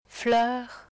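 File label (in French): "fleur", French; œ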